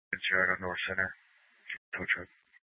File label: Superstition Dispatch, Mesa PD